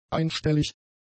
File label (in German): "einstellig", german; adjectives